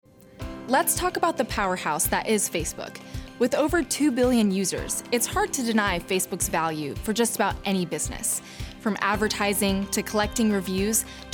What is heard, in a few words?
voiceover